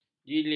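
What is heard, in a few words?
"jilid", Malay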